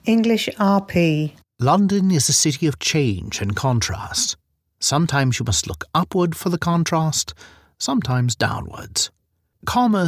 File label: British Dialect